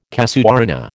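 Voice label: "casuarina", American English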